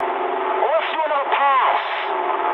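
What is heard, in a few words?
bomb failure mood high, speech English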